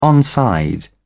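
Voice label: "onside", British English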